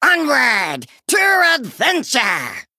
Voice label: Male Murloc Play

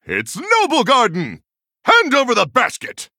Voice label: male human, "happy Noblegarden"

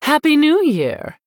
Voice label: Female Human HAPPY NEW YEAR LUNAR

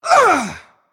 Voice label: Female Stoneborn Attack

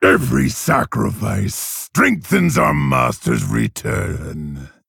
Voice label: Male Orc; Blademaster; Uther

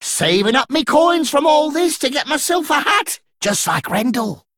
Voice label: Male Dredger Flavor

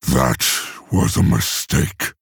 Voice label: "Oops", male orc; Thrall

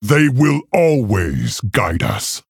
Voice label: Male Orc greetings

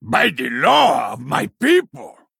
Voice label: Male Troll

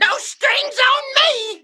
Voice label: mech emote attack; Blingtron

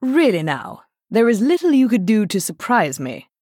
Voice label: Female Night Elf MIRROR GREETINGS; Elise

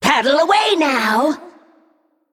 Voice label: Female Naga Attack

